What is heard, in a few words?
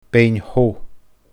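"Beinn Hogh", Scottish Gaelic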